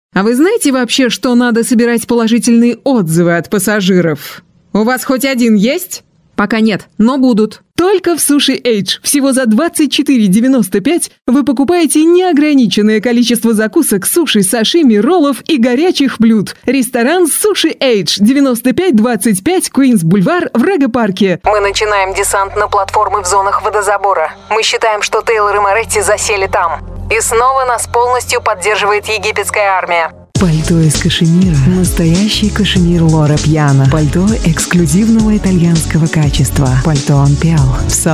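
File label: Russian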